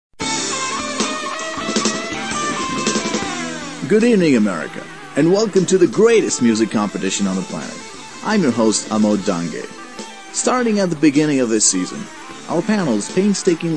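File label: english american male voice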